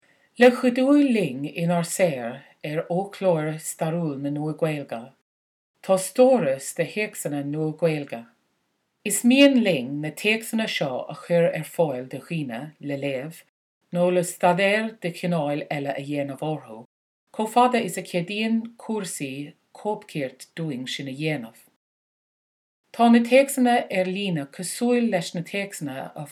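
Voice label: Irish female in Irish; gaelic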